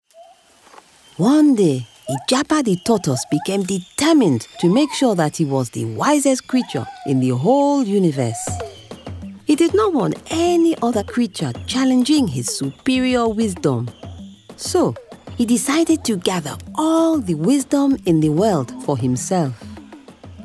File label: Audiobook; Tortoise the wisdom gourd